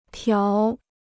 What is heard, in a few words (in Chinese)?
"条", Chinese; 条 (tiáo): strip; item